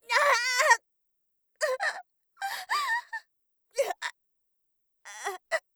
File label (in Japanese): 刺され, ASMR; 効果音なし